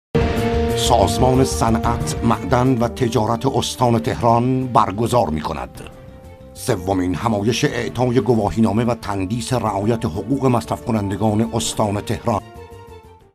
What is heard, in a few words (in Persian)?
نمونه صدای آقای